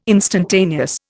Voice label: "instantaneous", Indian